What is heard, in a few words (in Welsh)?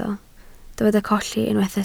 "colli", Welsh